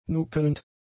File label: "nuckelnd", German; adjectives